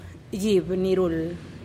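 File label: "gib nirul", Teotitlán del Valle Zapotec; instrument radio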